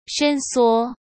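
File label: "伸缩", Mandarin Chinese; hsk; chinese-flashcards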